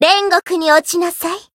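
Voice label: Voice Japanese; suzette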